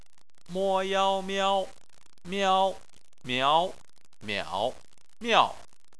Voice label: "miao", chinese